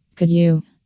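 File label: "could you", female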